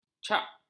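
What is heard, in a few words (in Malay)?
"cak", Malay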